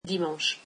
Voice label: french Sunday